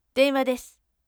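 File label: voice denwadesu firm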